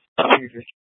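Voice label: Scottsdale PD North Dispatch; Nth Dispatch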